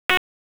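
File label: Police Southeast District Dispatch, p25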